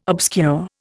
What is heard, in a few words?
"obscure", Indian